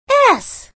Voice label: "s", letter; star catcher